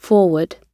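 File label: "forward", British English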